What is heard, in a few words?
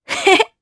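Vox Happy Japanese; Naila; kings raid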